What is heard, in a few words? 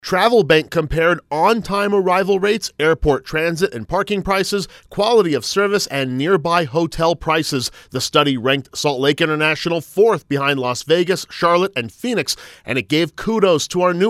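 voice public service announcement; SLC AIRPORT TRAVELERS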